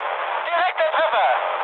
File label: bomb success, mood med, speech ge; War Thunder